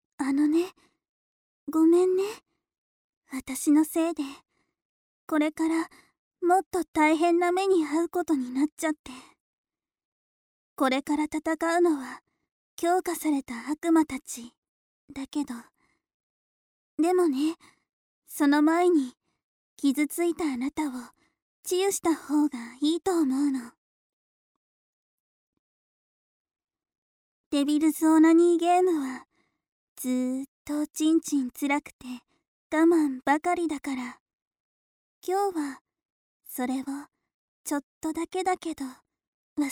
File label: healing, ASMR